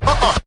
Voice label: "uh uh", Voice